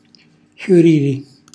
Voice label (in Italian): "xjuriri", Calabrese; χjurìri; fiorire; cucinacalabrese; glossario